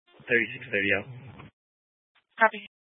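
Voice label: Police Dispatch, scanner audio recording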